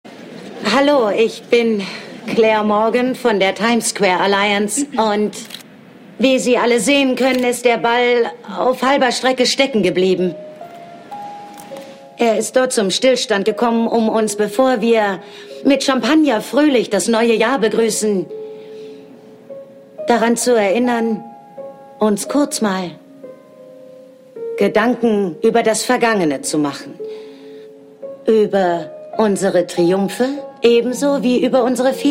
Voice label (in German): Fremdtake Dynamisch